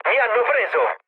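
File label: Italian aircraft destroyed mood low, speech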